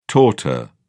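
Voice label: "torte", English, British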